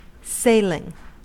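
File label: "sailing", American English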